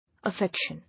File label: "Affection", English